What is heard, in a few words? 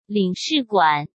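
"领事馆", Mandarin Chinese; hsk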